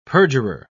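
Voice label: "perjurer", British English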